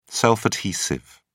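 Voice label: "self adhesive", British English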